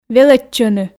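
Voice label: "велӧдчӧны", Komi, female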